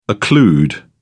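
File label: "occlude", British English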